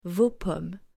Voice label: "vos pommes", French